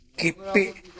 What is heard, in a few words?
"geppe", minamiyamagata